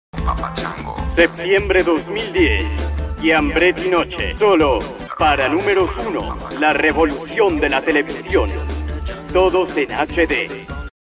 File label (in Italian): latino; Chiambretti